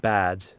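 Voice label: "bad", English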